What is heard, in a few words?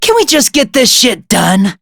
annoyed